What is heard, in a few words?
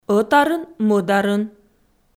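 "ӧтарын-мӧдарын", Komi, female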